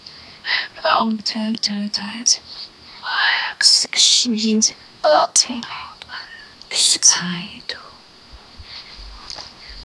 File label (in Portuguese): voz jovem feminina sussur; female